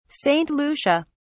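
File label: "saint lucia", British English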